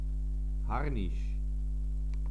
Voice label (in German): "harnisch", german